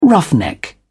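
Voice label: "roughneck", British English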